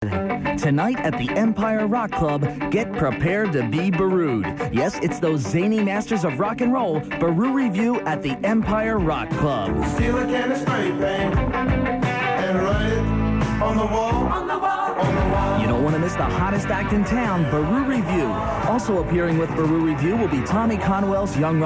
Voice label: Commercial; Beru Revue